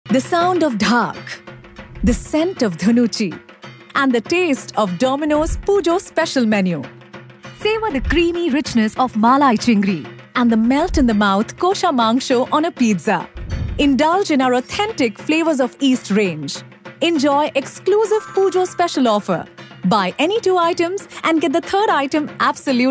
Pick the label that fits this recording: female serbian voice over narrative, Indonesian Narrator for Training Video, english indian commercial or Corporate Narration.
english indian commercial